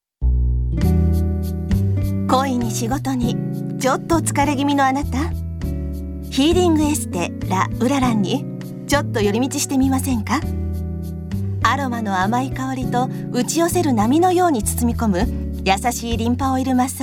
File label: Japanese woman